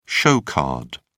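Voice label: "show card", British English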